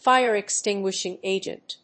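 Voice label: "fire-extinguishing agent", English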